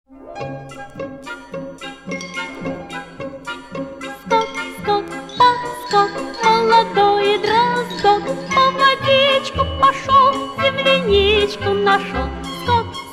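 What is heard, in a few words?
nursery rhymes; detskaya poteshka skok poskok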